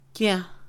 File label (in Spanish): no negativo, Mixe, Mujer; INALI